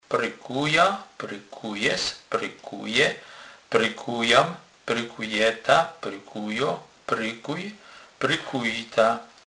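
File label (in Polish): "prykowac", Kurpie dialect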